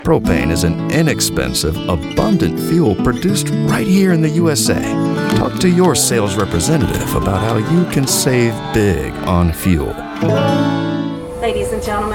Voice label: English Voiceover